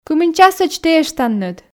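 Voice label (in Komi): кымын часӧдз тi эштанныд, Komi, female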